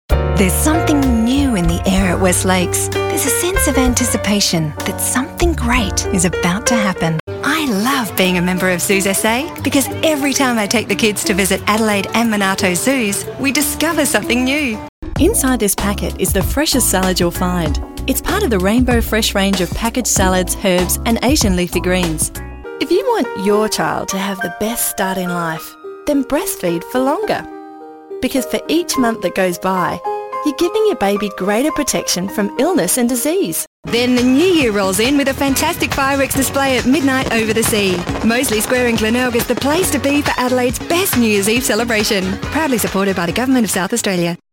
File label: Commercials